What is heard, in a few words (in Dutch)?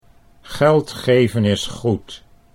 geld geven is goed, Dutch